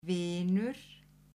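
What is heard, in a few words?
"vinur", Icelandic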